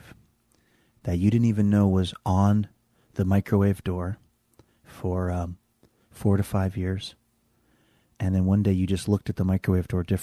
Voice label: saying somebody describing through asmr experience of pulling asmr like